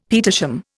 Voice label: "petersham", Indian; Dictionary